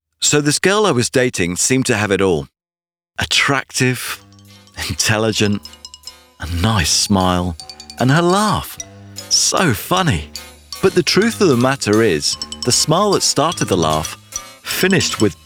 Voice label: ad